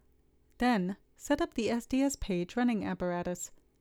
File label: Voiceover